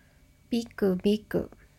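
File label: "biku biku", Japanese; ビクビク – biku biku; Scared and easily startled.; manga onomatopoeia sound effects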